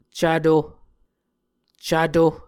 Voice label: "cvto", muscogee